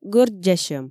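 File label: "гӧрддзасьӧм", Komi, female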